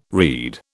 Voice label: "read", British